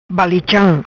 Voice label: "Balicham", Macanese